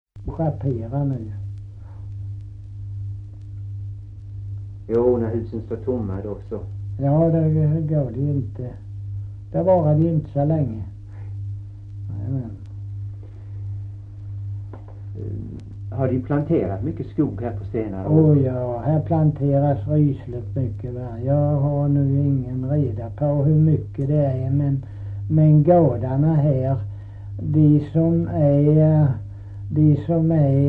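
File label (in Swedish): Kristianopel, Swedish dialect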